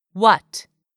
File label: "what", English; 3000 words